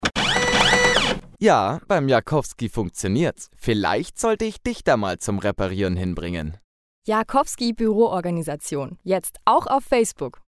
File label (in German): Werbung Reminder